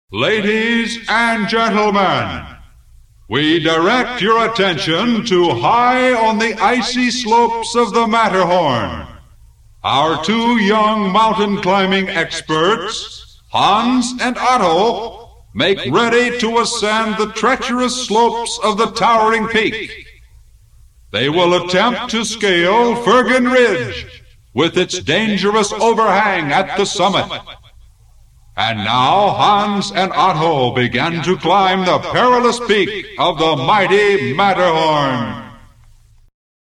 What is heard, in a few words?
Climber Announcement; Matterhorn